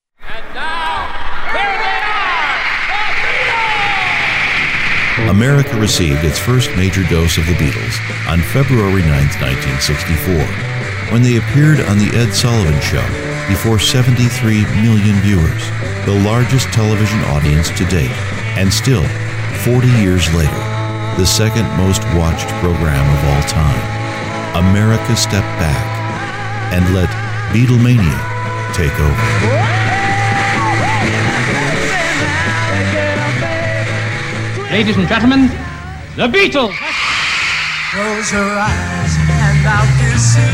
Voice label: Narration